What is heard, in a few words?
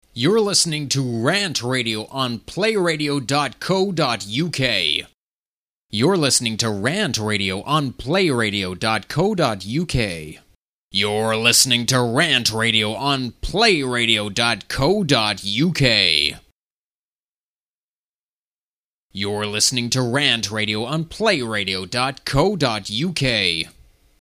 voiceover